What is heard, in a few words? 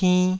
"pinn2", taiwanese